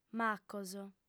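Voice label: "macos", female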